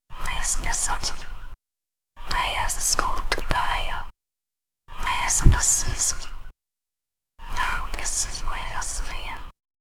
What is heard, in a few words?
the whispers become clear, scary